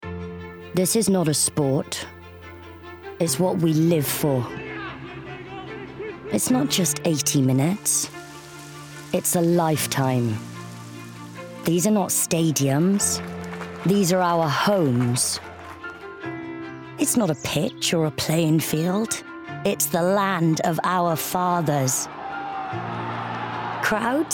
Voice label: Commercial; Welsh Rugby